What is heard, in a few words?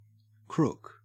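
"crook", Australian English; pronunciations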